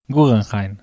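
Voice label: "guggenheim", Spanish, bizkaia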